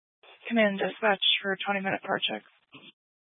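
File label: Incident Tactical 1, Scanner audio recording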